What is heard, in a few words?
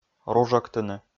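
"ружӧктыны", Komi, male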